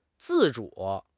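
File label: "自主", Chinese; HSK6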